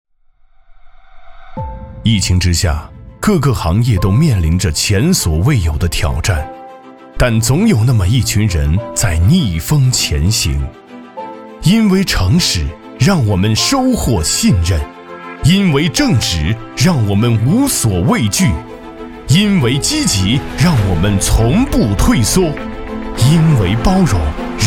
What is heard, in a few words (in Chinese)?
男 专题 大气自然; 中建五局